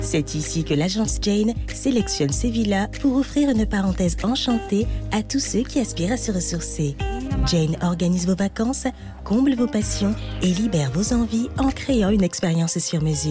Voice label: pub radio élégant confidentiel précieux, voix off; Agence Jane 2021

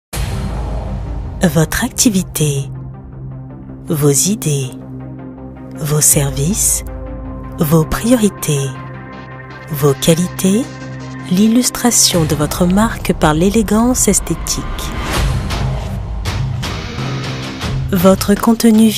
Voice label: ton posé classe promo voix type publicitaire; AGENCE ALEX WEB D; Design graphique

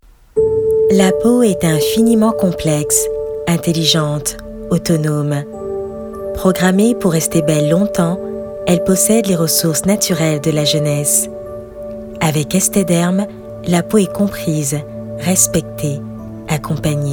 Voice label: Institutionnel medium rassurant posé descriptif explicatif; ESTHEDERM

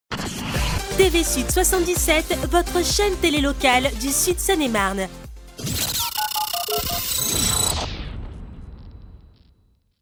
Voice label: Jingle Vidéo TV Tonique, voix off; SUD 77 Numéro 1.

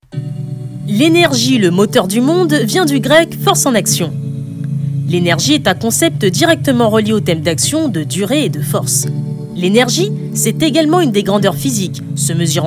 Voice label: Institutionnel jeune adulte homme convainquant affirmé, VOIX OFF; LENERGIE

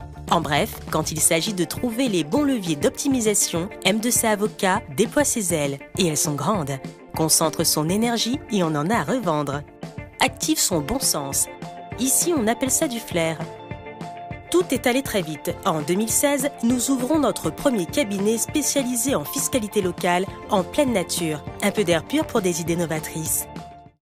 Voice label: motion design naturel affirmé explicatif et légère pointe d'humour, voix off; M2C

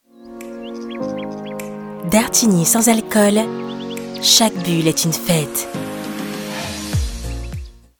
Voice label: Promo amical souriant Pub; DARTIGNY

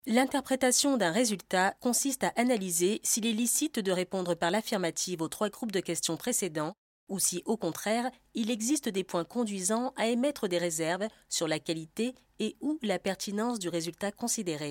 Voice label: femme medium grave explicatif démonstratif e learning; Interprétation dun essai thérapeutique

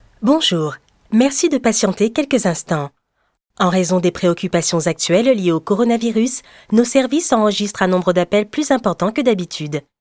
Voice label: Attente téléphonique; COVID-19 flux dappels opérateur va prendre lappel client

